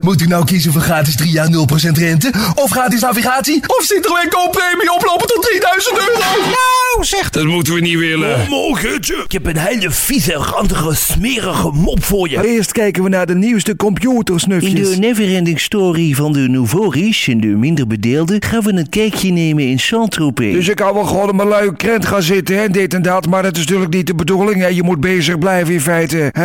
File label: Dutch Cartoon Animation